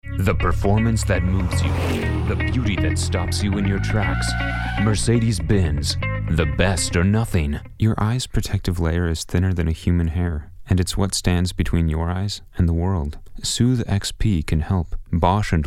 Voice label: Commercial VO